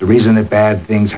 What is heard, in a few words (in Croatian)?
glas, ADPCM 22 Khz, 4bit, stereo